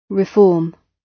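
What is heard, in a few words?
"reform", British English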